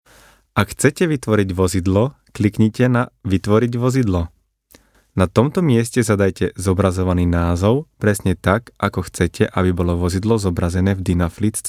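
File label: Slovak, male, corporate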